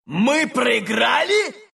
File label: lose, ru; dota2